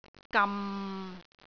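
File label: "gam6", Cantonese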